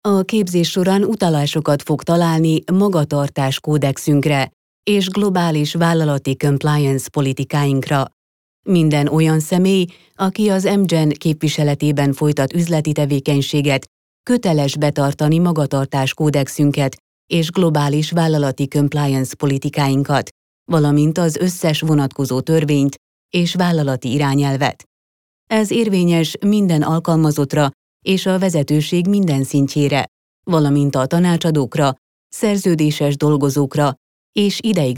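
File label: Hungarian narration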